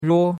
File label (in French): "ruo1", chinois; Cours-de-Chinois